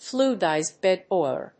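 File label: "FLUIDIZED BED BOILER", English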